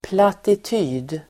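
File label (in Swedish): "plattityd", Swedish; plattityd substantiv, platitude; Uttal: [platit'y:d]; Definition: banalt yttrande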